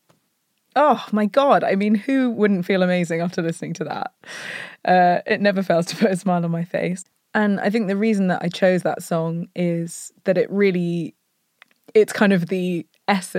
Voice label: Podcast Presenter